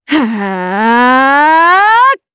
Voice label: Casting, jp; Ripine; kingsraid

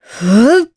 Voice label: Vox Casting, jp; Priscilla; kingsraid

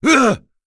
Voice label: Vox, en; Bernheim; kings raid; voices heroes en

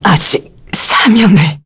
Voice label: Dead, kr; Lorraine; kingsraid

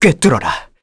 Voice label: Vox Skill, kr; Arch; kingsraid

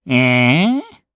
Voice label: Vox Think, jp; Bernheim; kingsraid

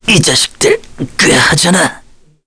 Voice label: Dead, kr; voices; heroes; Mitra; kingsraid

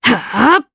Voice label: Vox Casting, jp; Valance; kings raid

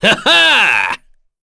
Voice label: Happy, kr; voices; heroes; Kain; kingsraid

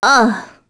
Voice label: Deny, kr, voices; heroes; Sonia; kings raid

